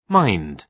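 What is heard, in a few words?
"mind", British English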